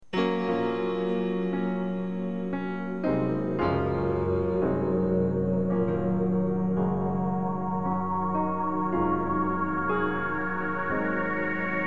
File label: voiceover